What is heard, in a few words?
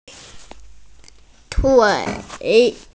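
"tui3", Mandarin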